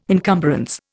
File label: "encumbrance", Indian